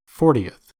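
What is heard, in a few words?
"fortieth" US English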